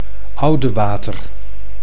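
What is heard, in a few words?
"Oudewater", Dutch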